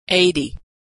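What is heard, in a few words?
"number 80", American English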